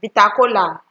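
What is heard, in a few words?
"bitter kola", Nigerian Pidgin, female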